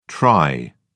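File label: "tri", British English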